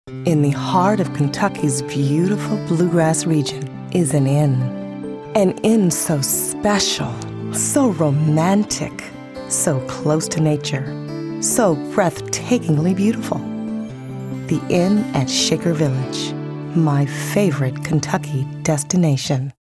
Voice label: romantic